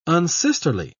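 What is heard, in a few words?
"unsisterly", British English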